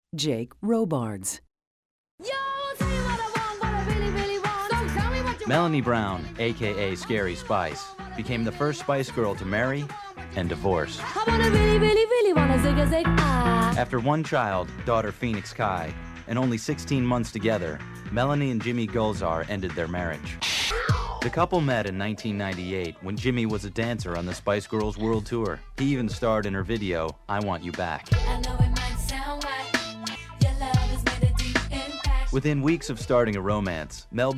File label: Narration